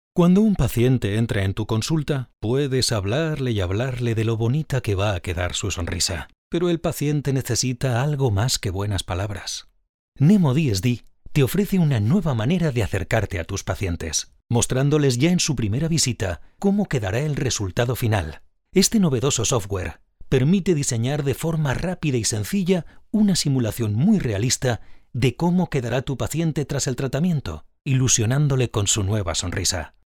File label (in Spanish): comercial